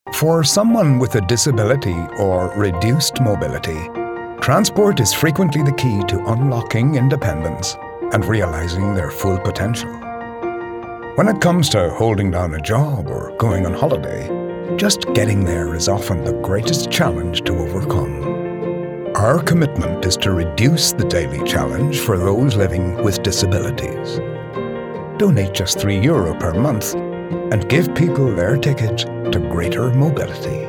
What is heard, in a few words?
Irish Charity